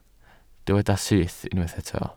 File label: "syth", Welsh